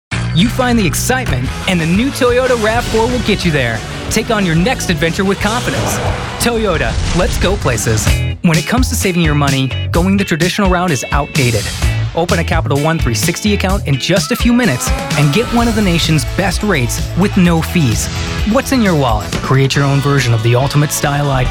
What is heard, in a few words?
Full Commercial